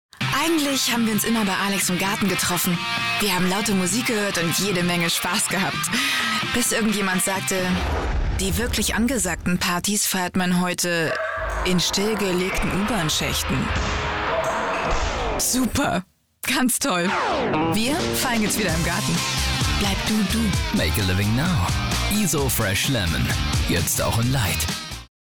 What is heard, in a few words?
commercial